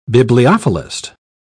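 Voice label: "bibliophilist", British English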